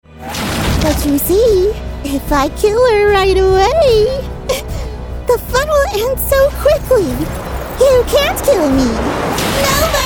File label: Animation